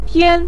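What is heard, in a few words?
"tian" neutral tone, Mandarin Chinese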